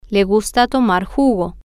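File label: he likes to drink juice, spanish, female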